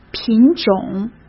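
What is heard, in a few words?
"品种", Mandarin Chinese; chinese flashcards; hsk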